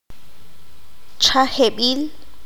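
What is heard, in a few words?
"Bendito de haber recibido la bendición", Q'anjob'al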